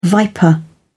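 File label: "viper", English, British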